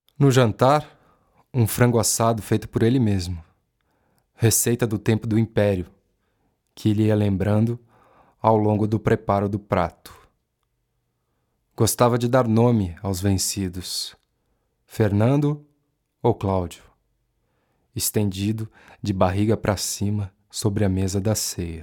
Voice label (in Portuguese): NARRACAO; meu tio lobisomem